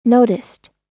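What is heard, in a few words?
"noticed", American English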